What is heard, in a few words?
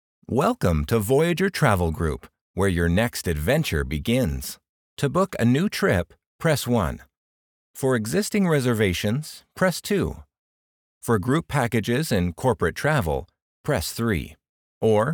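Travel Agency Auto-Attendant Warm Inviting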